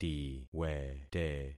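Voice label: "tiwete", concatenated syllables; Affixing experiment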